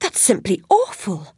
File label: sorry, voice commands; svencoop; Alice